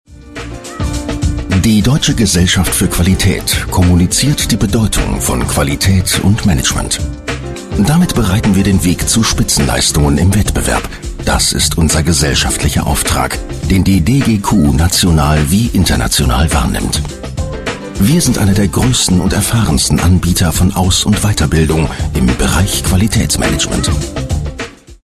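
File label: Dutch, male, corporate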